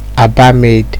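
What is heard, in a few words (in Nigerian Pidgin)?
"aba made", Naija, male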